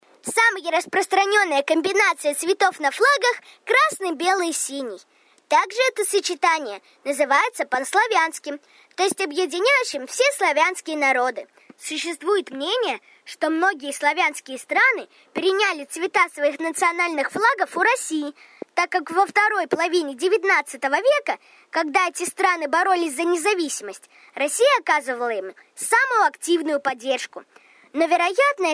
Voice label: boy russian

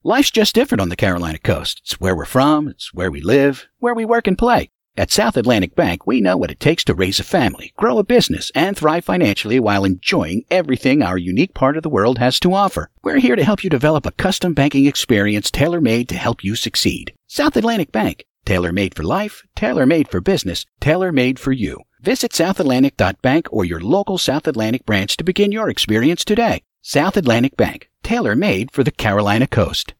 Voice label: man american

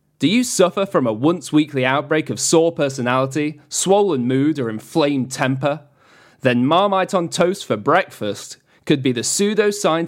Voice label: man british

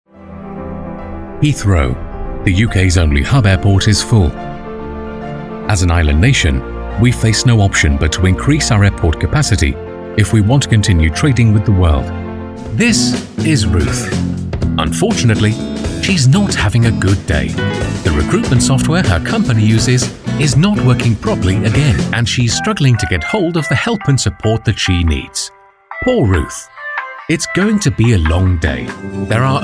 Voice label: man british

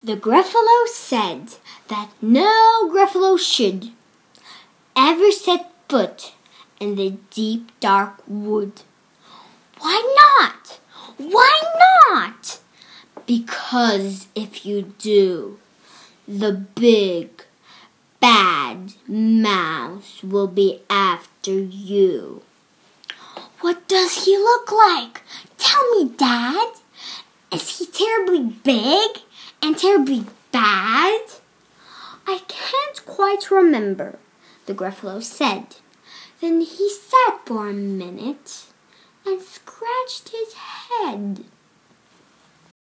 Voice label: eng child

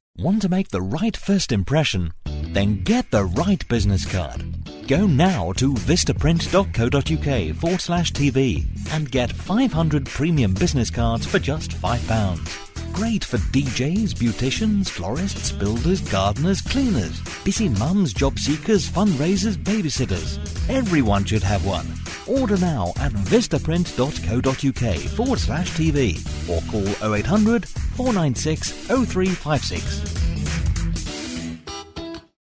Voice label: man british

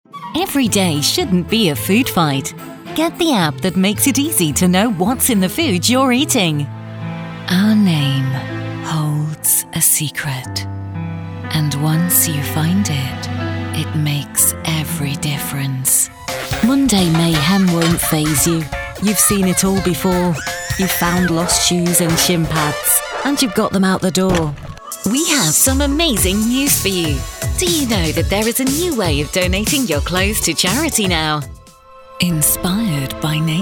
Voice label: woman british

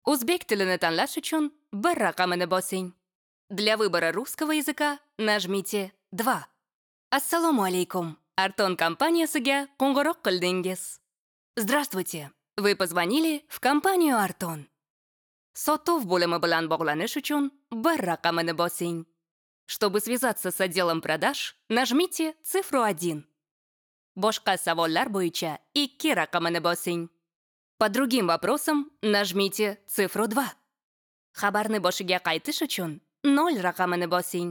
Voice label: ivr

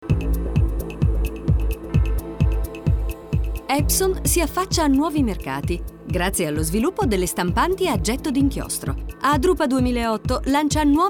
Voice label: italian

